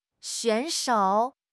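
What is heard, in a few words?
"选手", Mandarin Chinese; HSK6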